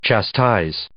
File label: "chastise", English